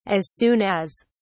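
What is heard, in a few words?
"as soon as", British English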